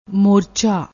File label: "morchaa", Punjabi